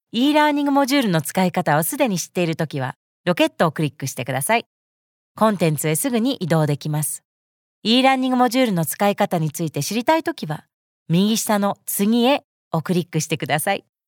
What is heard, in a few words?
JAPANESE